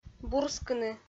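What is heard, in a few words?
"бурскыны", Komi, female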